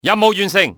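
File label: objective complete, cantonese, male; XBOX; enemy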